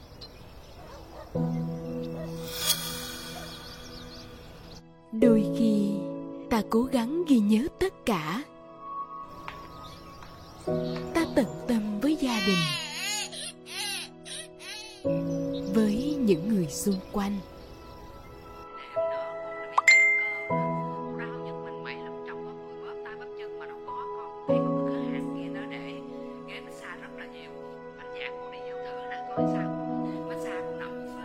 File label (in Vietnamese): TVC, TVC sâu lắng; TVC sâu lắng (KLC)